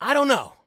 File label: Respond Don't Know, Soldier Male; Soldier Male 01